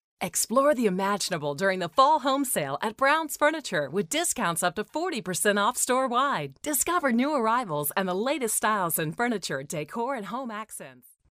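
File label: English US, female, commercial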